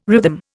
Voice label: "rhythm", Indian